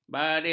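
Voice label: "baris", Malay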